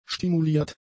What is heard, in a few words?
"stimuliert", German; adjectives